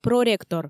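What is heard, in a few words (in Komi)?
"проректор", Komi, female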